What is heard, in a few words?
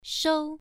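"shou" Mandarin Chinese, first tone, pronunciation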